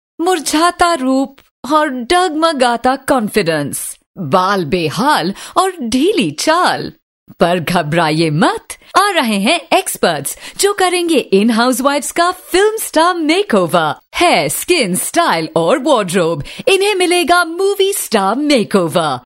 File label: hindi, female voice; tlc